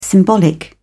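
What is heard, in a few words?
"symbolic", British English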